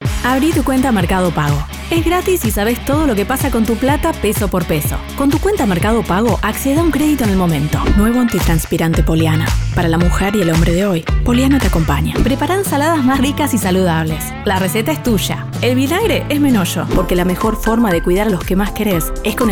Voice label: voiceover, foreign language; New York; spanish